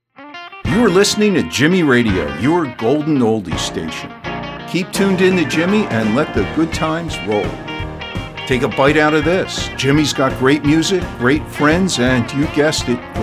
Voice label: Radio